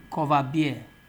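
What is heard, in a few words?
"coverbeer", Nigerian Pidgin, male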